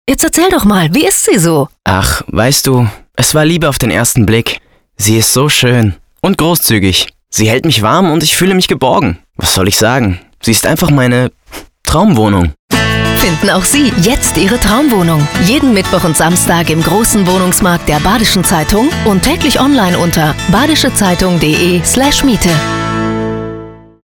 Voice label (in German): Funkspot Traumwohnung